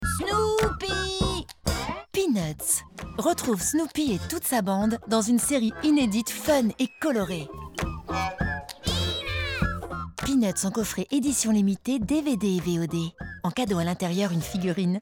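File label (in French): djeunes light, comédienne voix off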